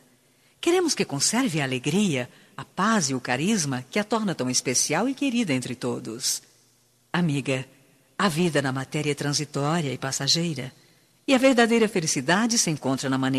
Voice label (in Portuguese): Telemensagem Para Agracescimento Amizade Plural Feminino